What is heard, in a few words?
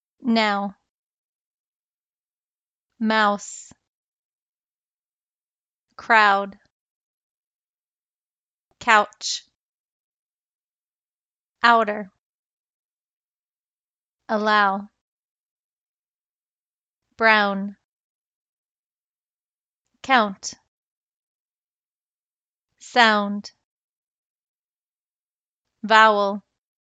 au words am